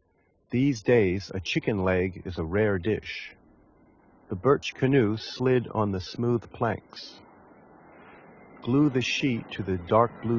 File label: male plane noise; vlc v7 hq 16; After compression and decompression by the VLC HQ 16 codec at 32000 bps